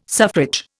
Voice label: "suffrage", Indian